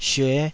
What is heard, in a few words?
"syue5", taiwanese Syllables